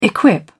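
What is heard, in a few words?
"equip", British English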